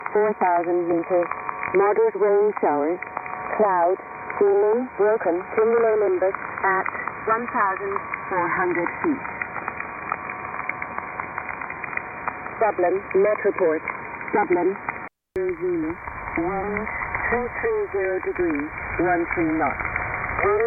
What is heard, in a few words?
Shannon Volmet USB 2.4khz BW; RSPduo; G33DDC; 5505khz